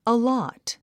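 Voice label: "allot", English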